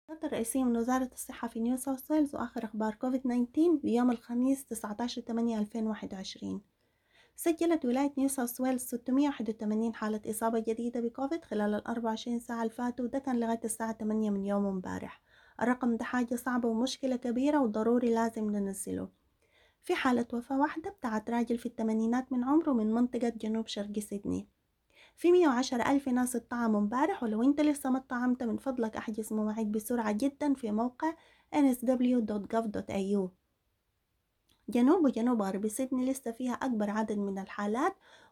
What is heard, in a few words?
sudanese arabic; covid-19 coronavirus; covid-19 daily key points